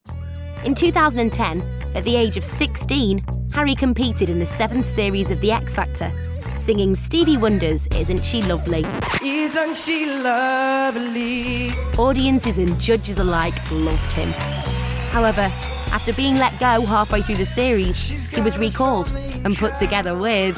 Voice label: Narration